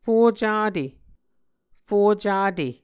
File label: "fo-cate", muscogee